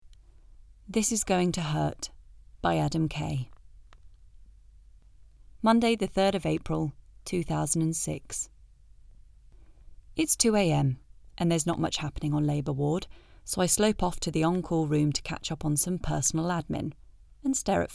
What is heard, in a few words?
NARRATION; This Is Going To Hurt